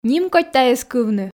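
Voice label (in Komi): нимкодь тайӧс кывны, Komi, female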